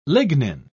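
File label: "lignin", English, British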